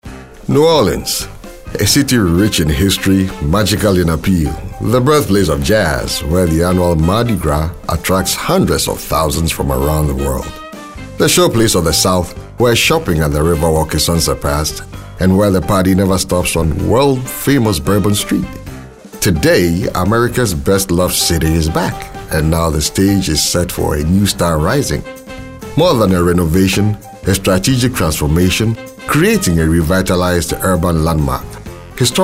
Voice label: Storyteller